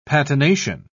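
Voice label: "patination", British English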